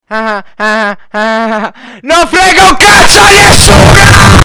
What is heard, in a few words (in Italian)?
nessuno earrape